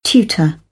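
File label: "tutor", British English; AmericanBritish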